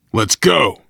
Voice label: move on, voice, biker; Left 4 Dead 2; left4dead2 dlc3; survivor

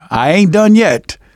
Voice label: getting revived, manager, survivor, voice; Left 4 Dead 2; left4dead2 dlc3

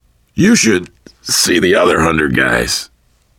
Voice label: getting revived, voice, biker

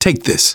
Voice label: alert give item, manager, survivor, voice; Left 4 Dead 2; left4dead2 dlc3

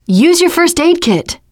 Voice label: suggest health, teengirl, voice; player; survivor

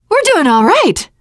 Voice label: hurrah, teengirl; player; survivor; voice

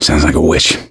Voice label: heard witch, survivor voice; gambler

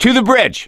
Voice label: misc directional, voice; gambler

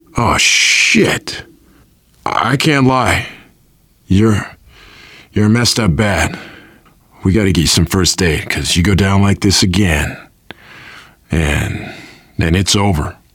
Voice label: revive critical friend, voice, biker; Left 4 Dead 2; survivor

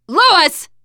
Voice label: name louis, teengirl, voice

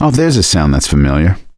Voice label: heard witch, voice; Left 4 Dead 2; survivor; gambler

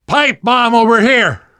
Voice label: spot grenades, survivor voice; namvet; Left 4 Dead 2; left4dead2 dlc2

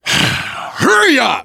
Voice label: hurry up, voice, biker; Left 4 Dead 2; left4dead2 dlc3; survivor

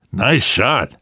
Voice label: nice shot, namvet, survivor voice; Left 4 Dead 2; left4dead2 dlc2

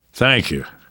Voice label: thanks, namvet, survivor voice

